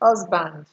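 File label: "husband", Nigerian Pidgin, female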